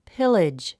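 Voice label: "pillage", English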